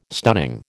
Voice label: "stunning", American